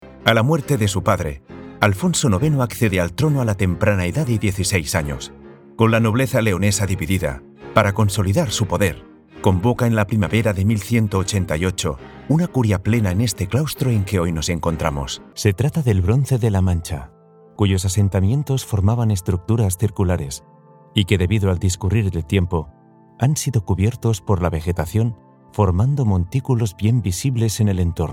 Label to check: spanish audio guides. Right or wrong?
Right